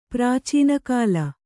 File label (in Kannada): "ಪ್ರಾಚೀನಕಾಲ", Kannada